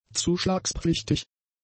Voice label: "zuschlagspflichtig", German; adjectives

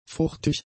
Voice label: "fuchtig", German; adjectives

